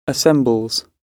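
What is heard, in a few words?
"assembles", British English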